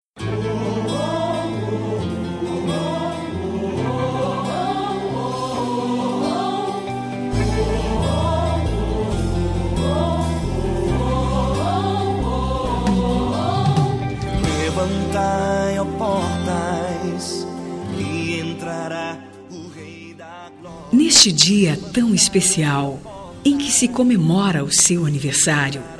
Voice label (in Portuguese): Aniversario Evangelico Geral Feminino, telemensagem